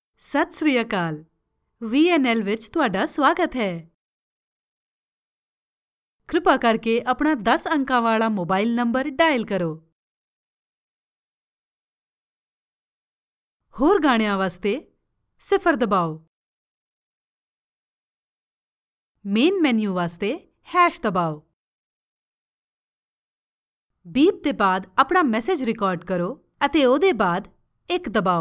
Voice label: punjabi ivr